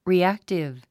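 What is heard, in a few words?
"reactive", English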